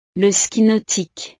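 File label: "le ski nautique", French; deportes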